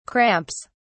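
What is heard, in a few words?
"cramps", British English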